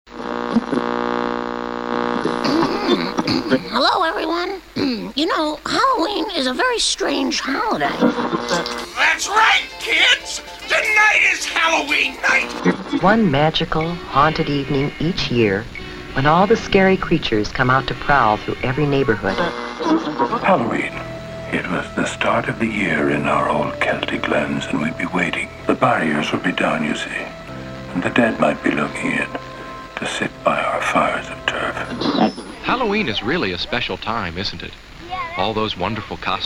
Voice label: radio bumper; Strange Holiday